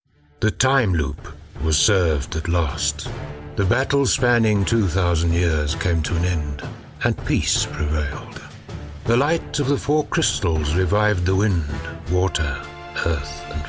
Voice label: english south african narration